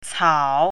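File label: "cao3", Mandarin Chinese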